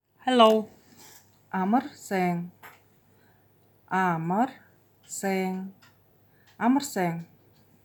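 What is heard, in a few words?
"Hello", Buryat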